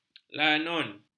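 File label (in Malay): "lanun", Malay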